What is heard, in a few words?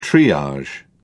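"triage", British English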